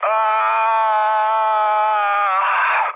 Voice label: damaged pilot, mood low, speech hun; War Thunder